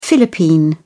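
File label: "philippine", British English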